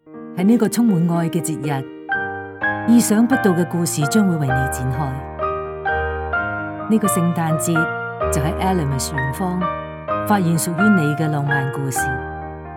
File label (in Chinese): 粵語女聲; element